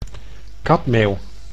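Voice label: "kapmeeuw", Dutch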